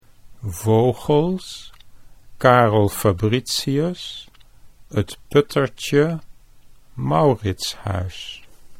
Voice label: "vogels", Dutch

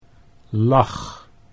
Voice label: "lach", Dutch

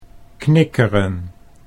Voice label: "knikkeren", Dutch

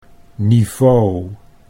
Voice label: "niveau" Dutch

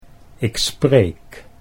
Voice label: ik spreek, Dutch

